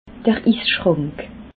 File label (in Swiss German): "isschrank", alsace